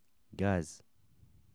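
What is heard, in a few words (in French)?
"gaz", French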